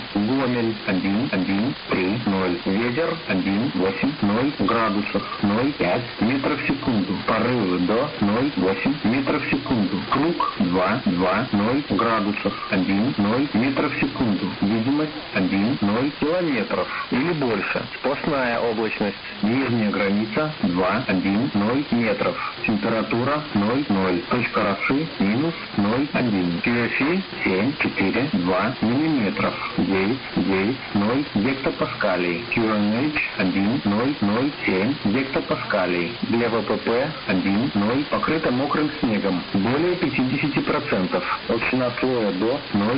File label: ATIS Homiel rus SDR